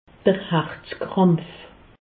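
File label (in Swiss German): "herzkrampf", Alsatian; medecine; angor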